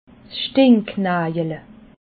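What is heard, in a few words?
"stinknajele", Alsatian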